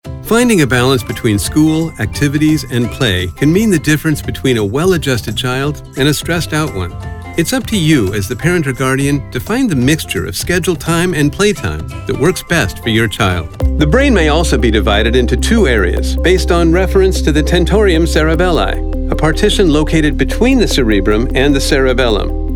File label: voice over actor medical narration